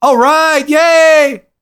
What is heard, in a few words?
cheer, english; Quake 4 Alpha; marine2